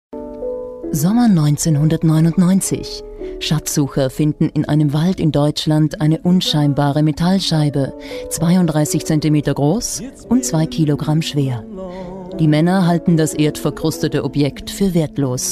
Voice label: Doku

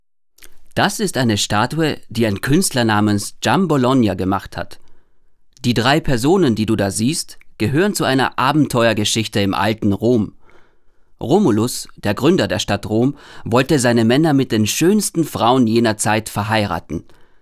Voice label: Audioguide

